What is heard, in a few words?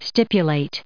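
"stipulated", US English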